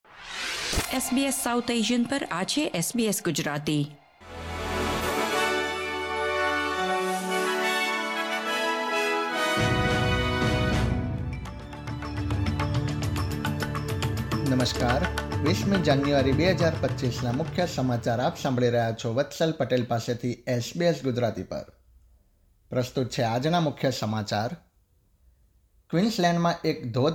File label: gujarati news bulletin; SBS Gujarati